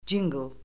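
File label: "cinql", Azerbaijani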